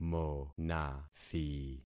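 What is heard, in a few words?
"monathi", concatenated syllables; Affixing experiment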